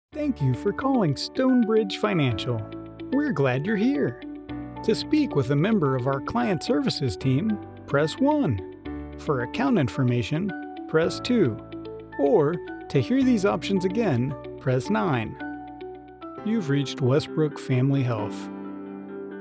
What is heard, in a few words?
IVR Telephony